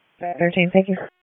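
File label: EMS Dispatch; wake; p25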